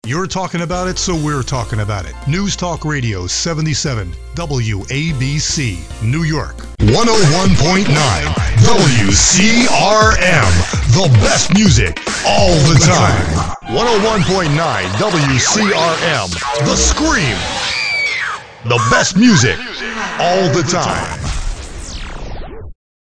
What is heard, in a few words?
Radio Image